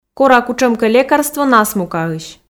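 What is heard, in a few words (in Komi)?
кора кутшӧмкӧ лекарство насмукаысь, Komi, female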